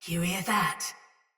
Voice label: Patrol Spoken Soldier Mace Agent Female; Battle; Sinecure Melee